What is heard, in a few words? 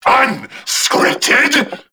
Vocal Investingating Projected; Warframe; Duviri; Dax